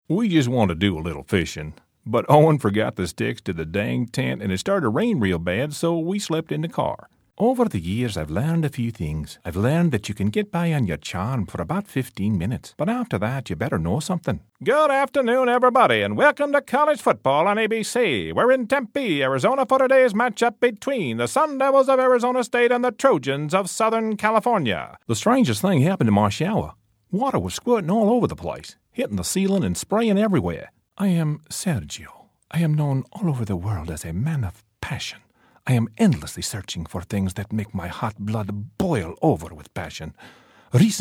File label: Characters, Male voices